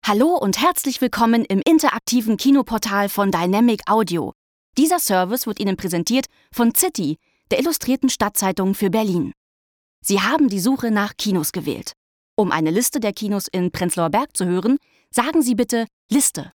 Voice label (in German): telefon, deutsch frauen